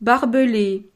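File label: "barbele", French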